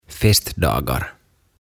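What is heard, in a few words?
"Festdagar", "Juhlapäivät", Swedish, Finnish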